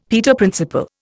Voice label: "Peter Principle", Indian; Dictionary